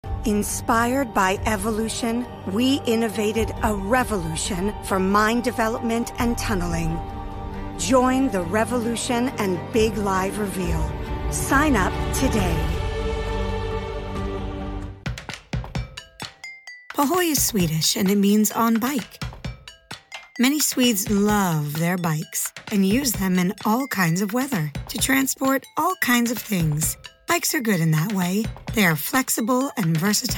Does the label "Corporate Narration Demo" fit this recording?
Yes